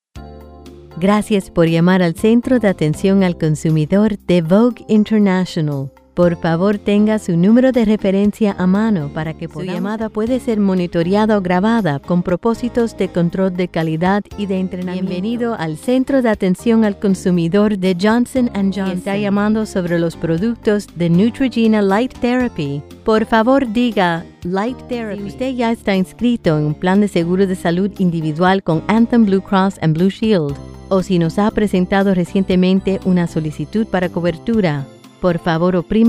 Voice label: Spanish IVR